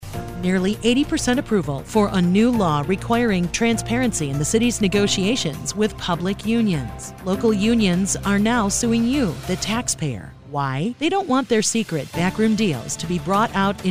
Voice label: BARGAINING FEMALE